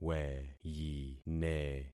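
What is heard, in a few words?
"weyine", concatenated syllables; Affixing experiment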